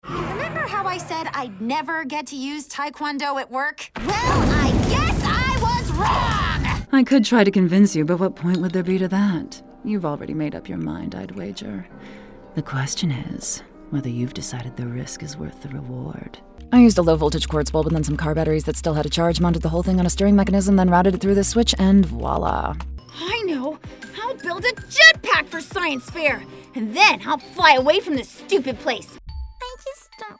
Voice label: Animation Demo